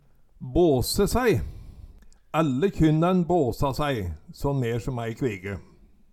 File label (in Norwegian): base saei, Numedalsmål